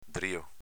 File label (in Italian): "drio", dialetto veneto